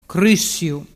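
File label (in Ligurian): "crussiu", Ligurian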